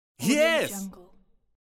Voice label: male voice expression "yes"

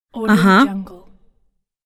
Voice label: woman understand aha

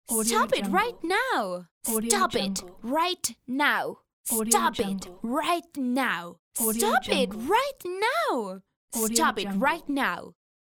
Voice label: teenage girl angry stop it right now

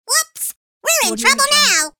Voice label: cartoon voice whoops we're in trouble now

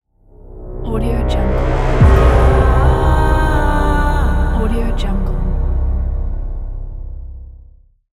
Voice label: drama voice ident

